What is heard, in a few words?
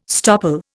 "stopple", Indian